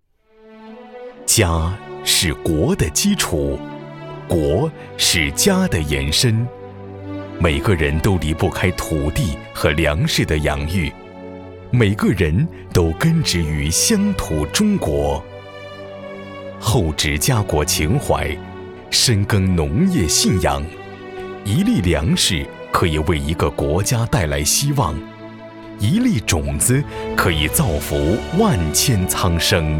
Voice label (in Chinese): 男国 专题 政府 家国情怀 浑厚